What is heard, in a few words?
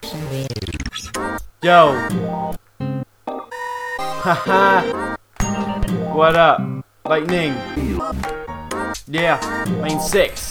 Rap